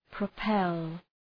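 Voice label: "propel", English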